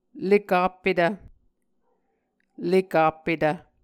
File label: "lekappetv", Muscogee